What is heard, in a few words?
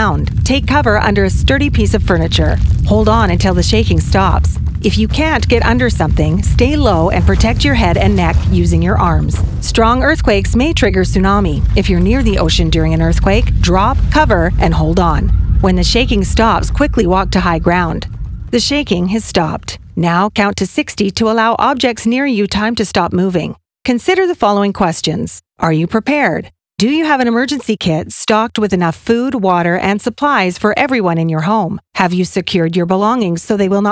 ShakeOut Drill Broadcast English